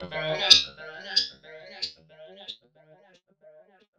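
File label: effects talk, Funky Vocals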